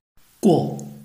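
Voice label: "guo", Chinese, tone 4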